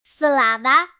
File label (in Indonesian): Suara Ceria Anak Selada